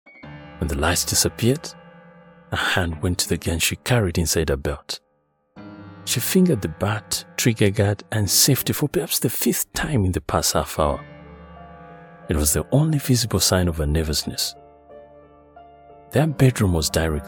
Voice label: Audiobook

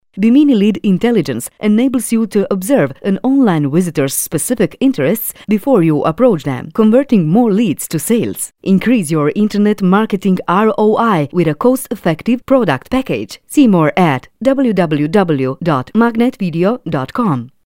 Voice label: English Scandinavian accent

